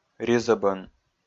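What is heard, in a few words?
"ризӧбӧн", Komi, male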